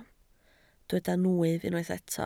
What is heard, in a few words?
"nwyf", Welsh